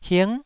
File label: "hing9", taiwanese syllable